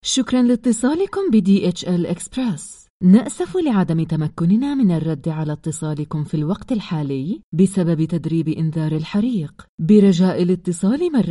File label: IVR SAMPLE; DHL